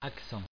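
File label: "accent", French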